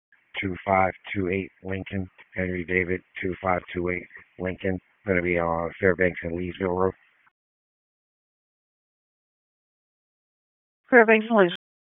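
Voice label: Police Southwest District Dispatch, p25; Raleigh Police Southwest District Disp; wake p25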